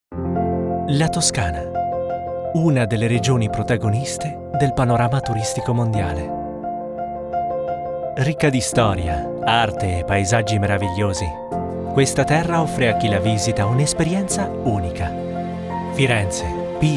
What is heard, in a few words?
Italian Corporate Emotional